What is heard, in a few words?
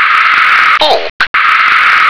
"fork", English